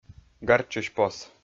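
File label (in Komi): "гартчысь пос", Komi, male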